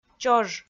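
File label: "чож", Komi-Zyrian, female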